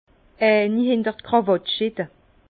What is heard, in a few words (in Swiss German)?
"krawatt", Bas Rhin; vin